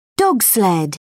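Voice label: "dogsled", British English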